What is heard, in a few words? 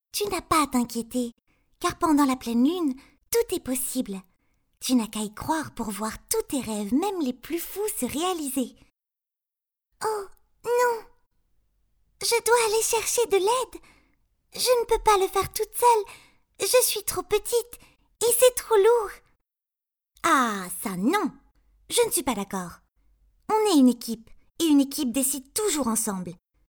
female french kids; ideasonora